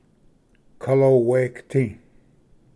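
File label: "kalowegati", Cherokee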